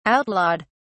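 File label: "outlawed", British English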